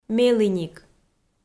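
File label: "меліник", Komi, female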